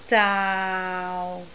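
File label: "zaau6", Cantonese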